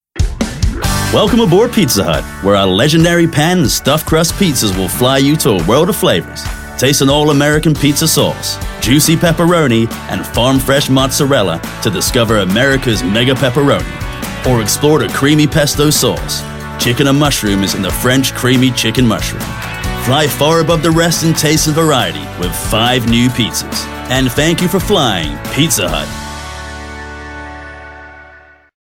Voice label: Radio VO Upbeat, promo; Pizza Hut; Radio VO Demo